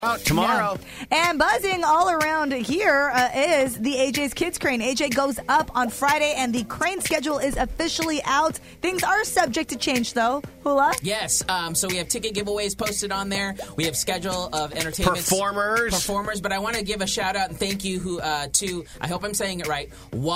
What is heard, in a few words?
Shoutout Happy